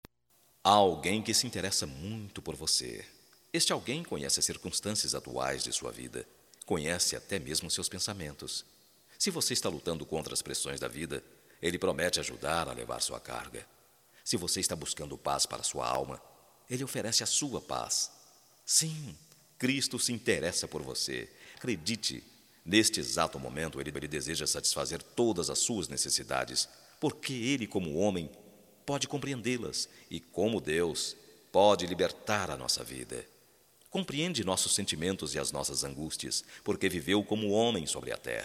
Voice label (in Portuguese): OTIMISMO EVANG., Voz, masc; Telemensagens; Só Voz; Telemensagens 2017